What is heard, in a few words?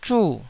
"zhu4", Taiwanese